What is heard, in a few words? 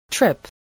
"trip", voice